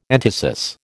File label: "entasis", American